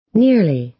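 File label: "nearly", American English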